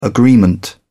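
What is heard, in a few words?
"agreement", British English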